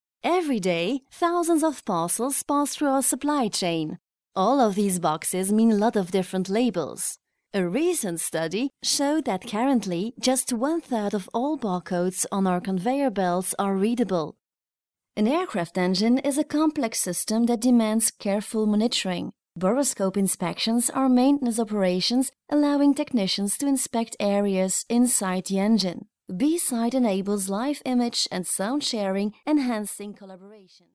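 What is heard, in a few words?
Flemish, female, voice over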